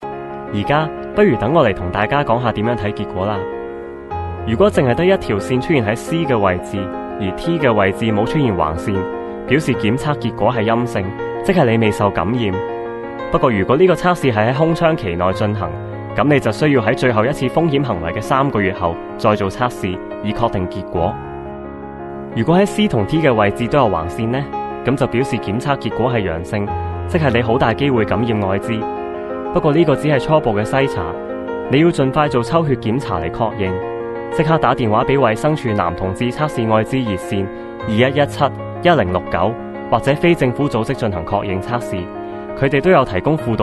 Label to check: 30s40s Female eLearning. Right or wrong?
Wrong